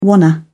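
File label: "wanna", English, British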